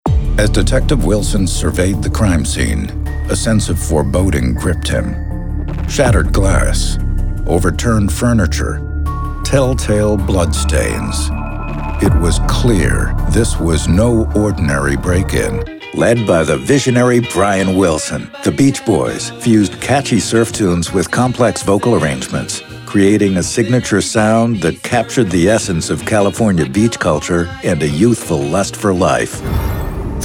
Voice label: vo naration